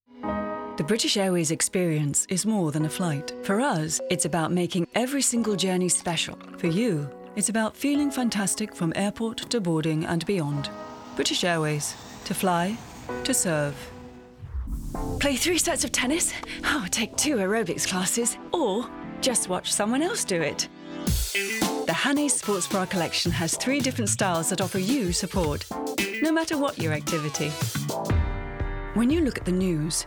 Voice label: Commercial